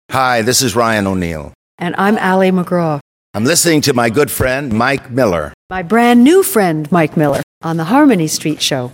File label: promo